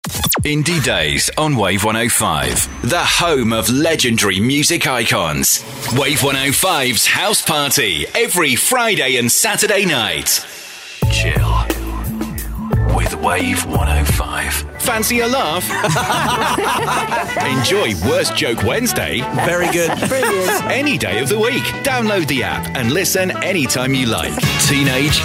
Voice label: imaging

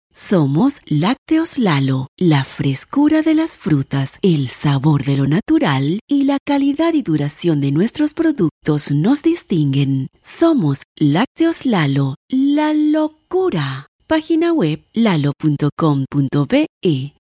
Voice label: Latin Spanish voice commercial

